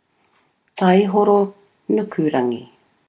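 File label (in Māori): "taihoro nukurangi", Māori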